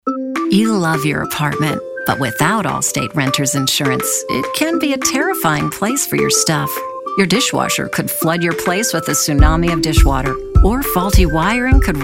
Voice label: Commercials